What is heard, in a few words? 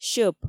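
"сьӧп", Komi, female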